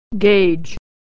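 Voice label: "gauge", American English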